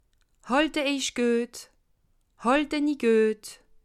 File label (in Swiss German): "halt", alsace